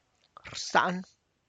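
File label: Tlacochahuaya Zapotec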